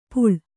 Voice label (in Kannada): "ಪುಳ", Kannada